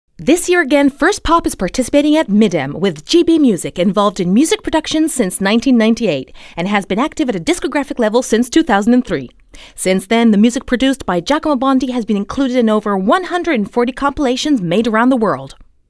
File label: ENGLISH AMERICAN DINAMICA